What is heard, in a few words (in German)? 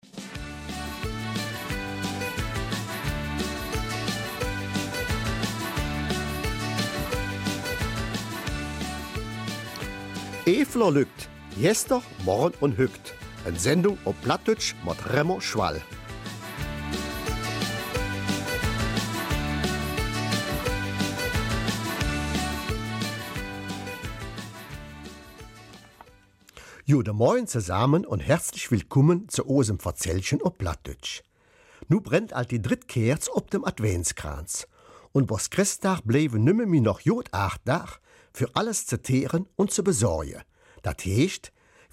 Eifeler Mundart